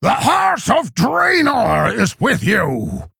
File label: Male Orc Play